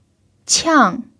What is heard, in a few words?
"qiang4", pinyin